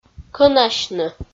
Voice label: "кӧнасьны" Komi

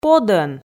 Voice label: "подӧн", Komi

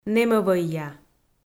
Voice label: "нэмӧвӧйя", Komi

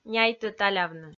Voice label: "няйтӧ талявны", Komi

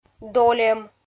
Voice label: "дольӧм", Komi

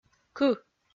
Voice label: "кы" Komi

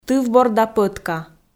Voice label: "тывборда пӧтка", Komi

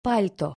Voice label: "palto", Komi

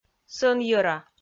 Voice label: "сӧнйӧра", Komi

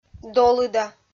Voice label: "долыда", Komi

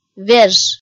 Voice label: "веж" kpv f

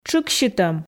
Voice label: "тшыксьытӧм", Komi

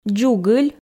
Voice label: "дзугыль", Komi

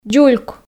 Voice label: "дзульк", Komi

